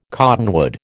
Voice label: "cottonwood", American